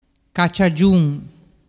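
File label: "cacciagiun", Vernazzese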